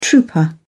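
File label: "trouper", British English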